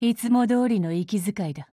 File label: HELLO JP; Beowulf